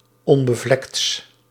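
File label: "onbevlekts", Dutch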